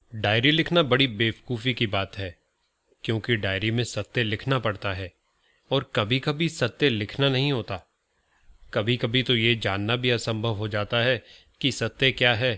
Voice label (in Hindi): audiobook hindi